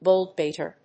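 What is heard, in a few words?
"goldbeater", English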